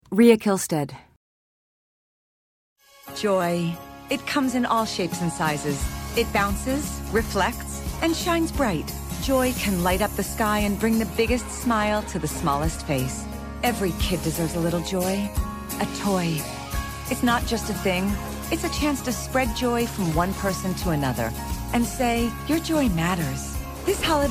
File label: commercial; Innovative LA